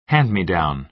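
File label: "hand-me-down", American English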